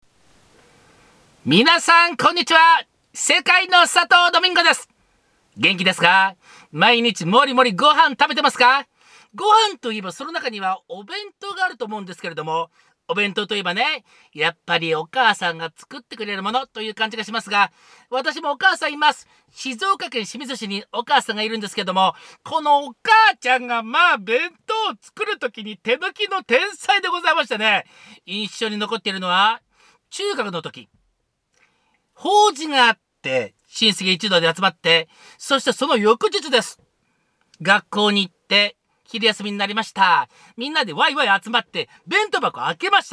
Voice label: フリートーク

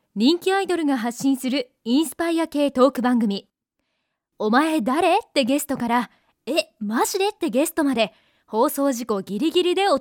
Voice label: ボイスサンプル 番組ナレーション風 バラエティ ラジオ風

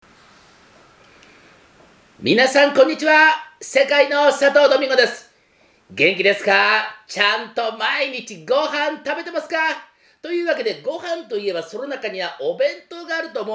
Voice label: フリートーク

